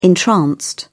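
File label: "entranced", English, British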